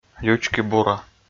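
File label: "лючки-бура" Komi, male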